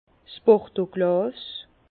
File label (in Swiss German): "bordeauglas", Bas Rhin; vin; verre de bordeaux